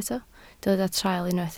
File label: "traul", Welsh